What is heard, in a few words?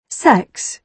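"sex", British English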